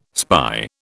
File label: "spy", British